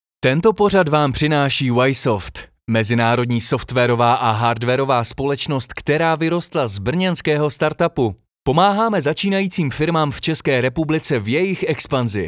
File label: CZ Promo TV